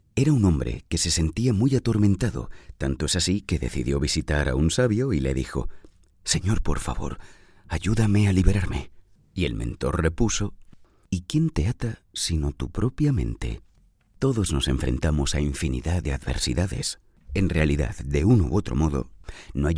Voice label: spanish explainer